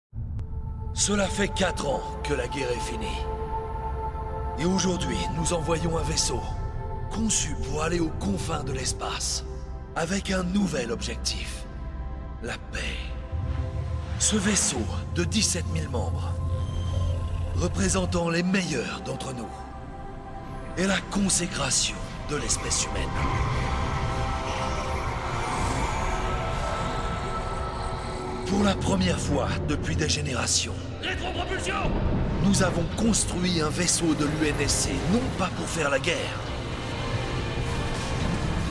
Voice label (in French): spot TV; Halo 4